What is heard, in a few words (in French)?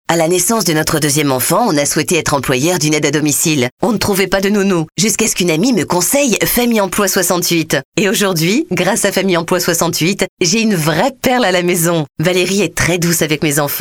radio pub spot